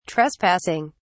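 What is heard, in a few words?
"trespassing", British English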